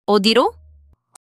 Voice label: neutral